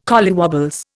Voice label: "collywobbles", Indian